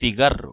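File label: "cigarro", Spanish; ktuberling; tdegames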